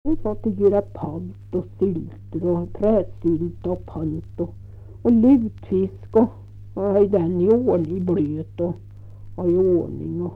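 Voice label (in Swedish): Kvistbro Närke, dialekter; Folkminnen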